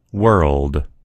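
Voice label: "world", American English; gcompris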